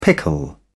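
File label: "pickle", British English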